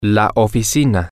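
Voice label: the office, Spanish, male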